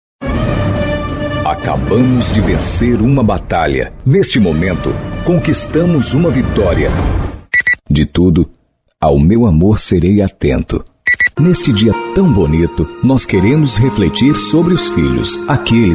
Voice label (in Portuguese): locutor, vozes masculina